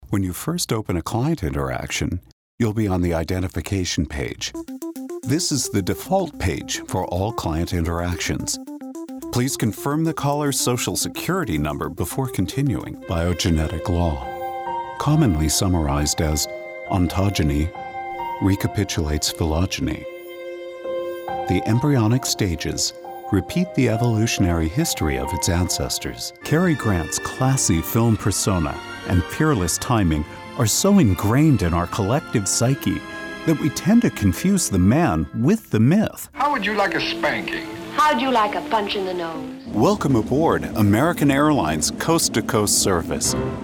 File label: Narration